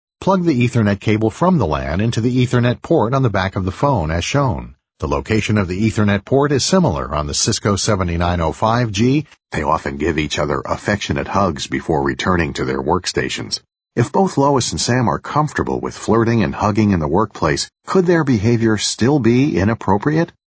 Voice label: American English, M